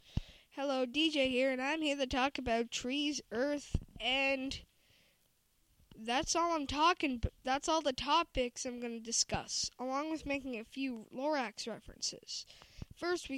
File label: radio ad